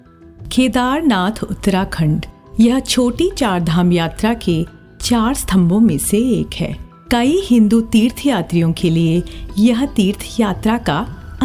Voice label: Documentary Hindi, female, baritone voice